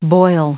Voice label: "boil", en-us; boil (to)